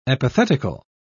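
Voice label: "epithetical", British English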